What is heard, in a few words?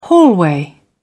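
"hallway", British English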